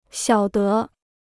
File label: "晓得", Chinese